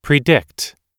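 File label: "predict", English; oxford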